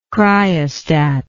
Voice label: "cryostatic", english

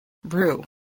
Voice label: "brew", spelling

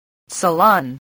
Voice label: "salon", English spelling

